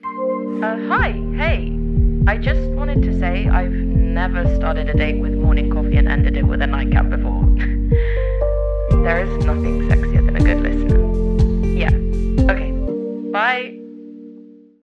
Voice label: female english commercial, english southern accents; bumble